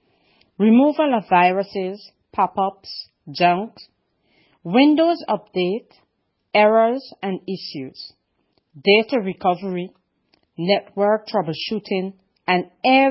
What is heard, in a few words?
COMPUTER SERVICE COMMERCIAL; YIPPEETECH